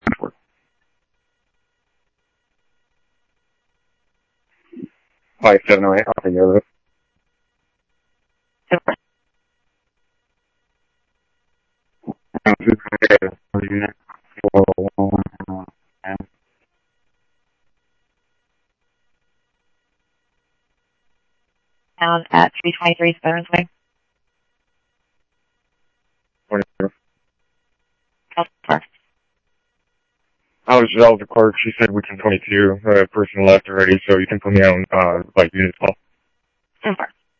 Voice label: Tempe Police, scanner audio